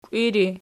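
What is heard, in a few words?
"kiwiri" nominative, Archi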